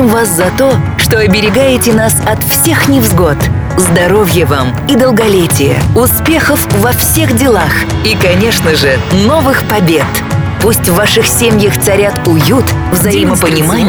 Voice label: Поздравление с 23 февраля, женский

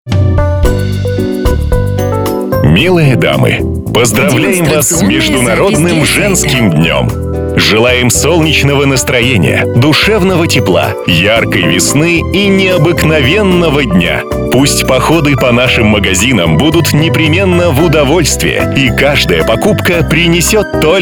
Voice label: Поздравление с 8 марта, мужской

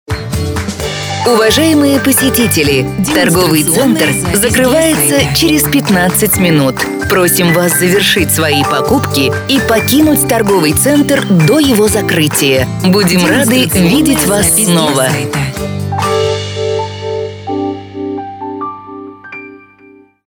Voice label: Ролик закрытия торгового центра женский

